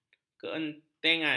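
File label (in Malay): "keentengan", Malay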